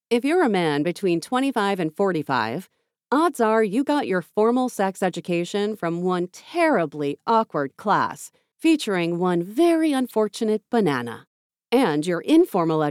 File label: Cheeky Sexy Humorous Self Help Audiobook